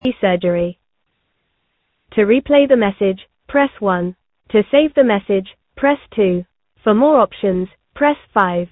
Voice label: call recording